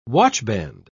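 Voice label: "watchband", British English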